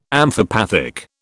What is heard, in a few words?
"amphipathic", British